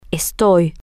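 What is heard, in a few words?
I am temporary, spanish, female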